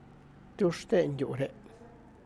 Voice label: Whose house is this, Tlacochahuaya Zapotec; Tu xhten yu re?